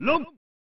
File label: "Loongth!" (Male); pro mahjong kiwame; snes